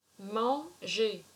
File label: "Manger", french